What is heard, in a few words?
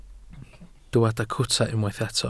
"cwta", Welsh